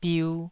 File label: "biu2", taiwanese